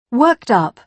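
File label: "worked up", British English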